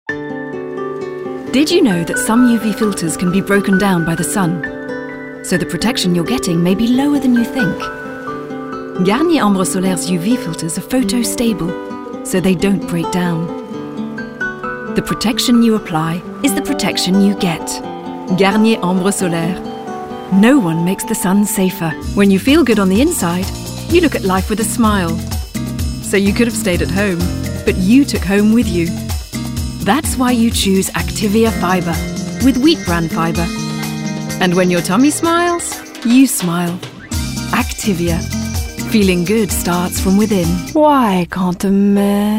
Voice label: Commercial reel